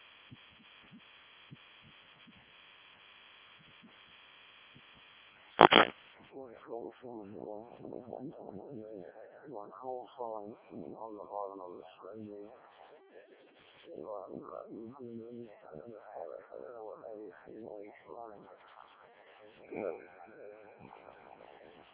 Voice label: decoded speech, 7177KHz